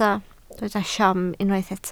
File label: "siom", welsh